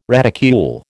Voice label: "reticule", American